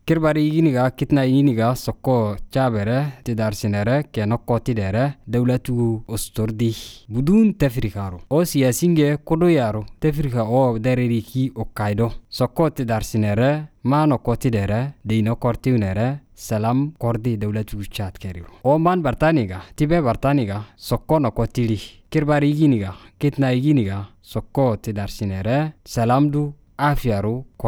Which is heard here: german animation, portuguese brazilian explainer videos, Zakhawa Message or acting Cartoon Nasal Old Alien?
Zakhawa Message